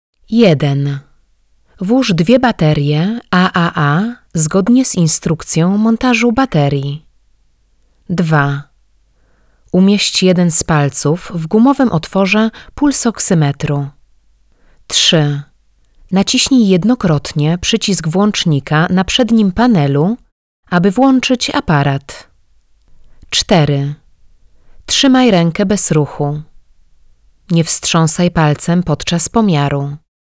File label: Female Polish Voice Instructional